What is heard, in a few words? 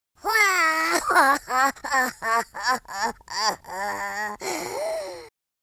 sad, speech; Confucious